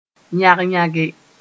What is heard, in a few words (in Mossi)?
"yãg-yãge", moore; hasty